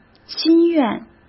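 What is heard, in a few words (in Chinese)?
"心愿", Mandarin Chinese; hsk; chinese-flashcards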